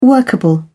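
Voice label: "workable", British English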